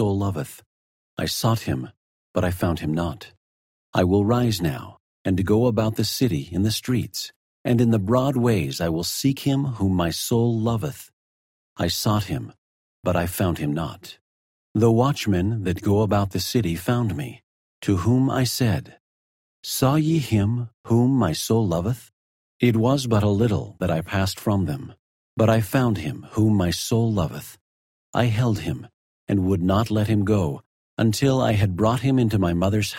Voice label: the song of solomon male voice; the old testament

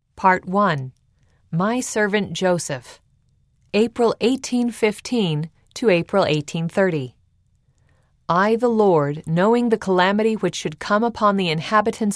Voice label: female voice; part 1 my servant joseph; eng

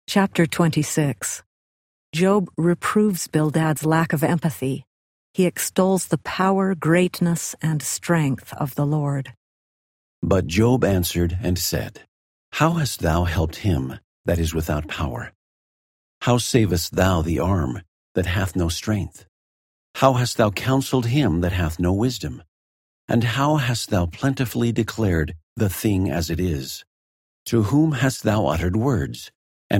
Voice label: job male voice; the old testament

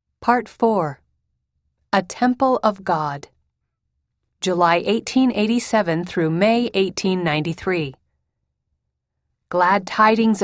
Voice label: female voice; part 4 a temple of god

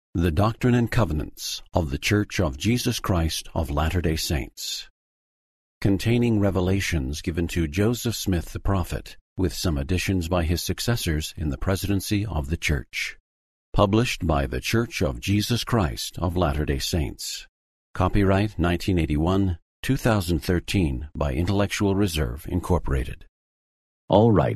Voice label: title male voice; the doctrine and covenants

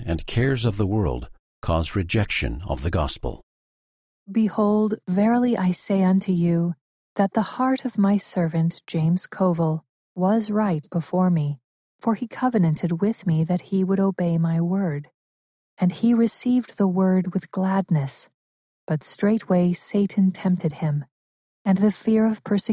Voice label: section female voice eng

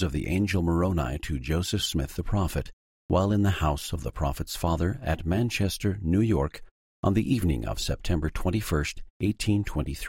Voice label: section female voice eng

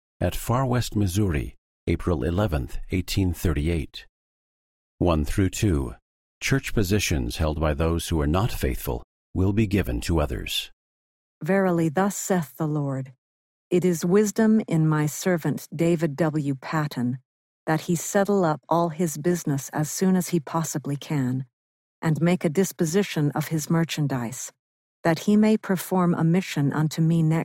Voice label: section female voice eng

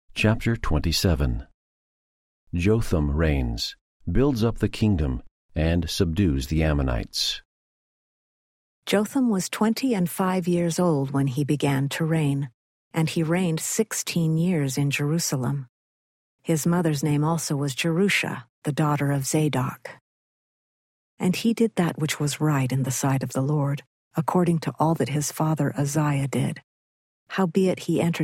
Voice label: 2 chronicles 27 female voice; the old testament

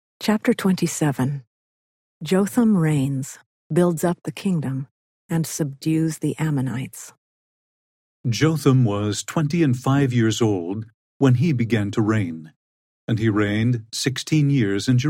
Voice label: male voice, eng; the old testament

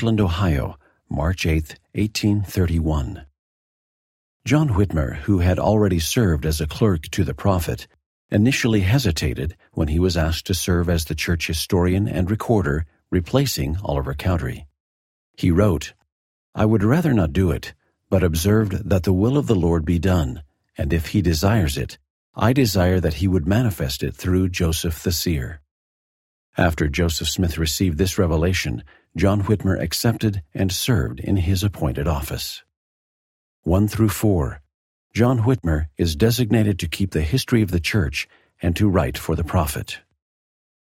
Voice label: section female voice eng

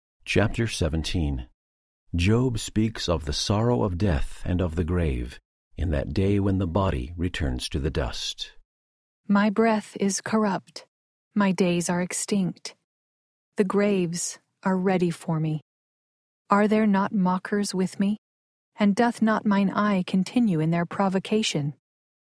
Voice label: job female voice; the old testament

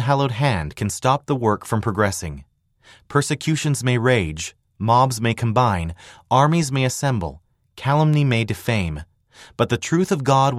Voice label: epigraph male voice; eng

